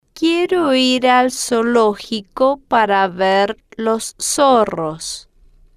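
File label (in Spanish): quiero Ir Al Zoologico Para Ver Los Zorros, Spanish, female, slow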